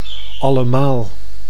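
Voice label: "allemaal", Dutch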